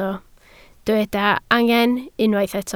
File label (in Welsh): "angen", Welsh